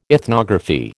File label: "ethnography", American